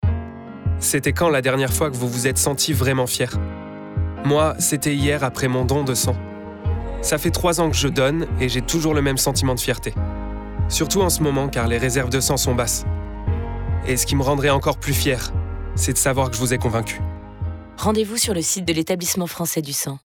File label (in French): SPOT RADIO; EFS